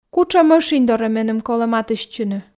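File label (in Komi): кутшӧм ӧшинь дорӧ меным колӧ матыстчыны, Komi, female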